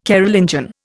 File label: "Carolingian", Indian